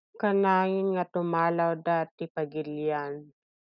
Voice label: Ilocano; often leave the country - kanayon nga tumalaw da ti pagilian